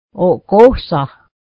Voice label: "skirt", mohawk